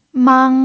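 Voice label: "mang", pinyin, first tone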